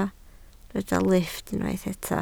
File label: "lifft", Welsh